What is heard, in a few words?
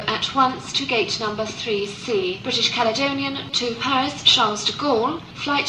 flight ann ringtone